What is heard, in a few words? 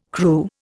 "Kru", Indian